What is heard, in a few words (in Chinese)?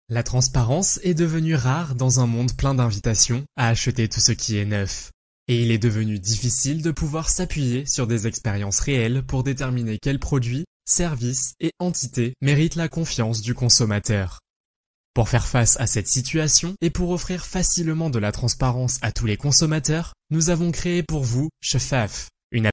法语男, 外语, 小语种